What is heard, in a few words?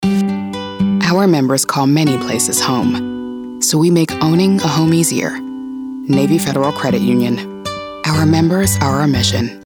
Heartfelt Trustworthy Warm Commercial, african american voice; Navy Federal Credit Union